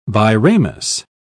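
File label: "biramous", British English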